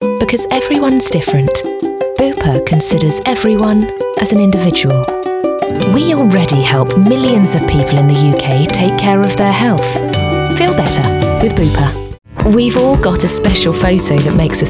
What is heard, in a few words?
Commercial